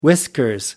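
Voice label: "whiskers", British English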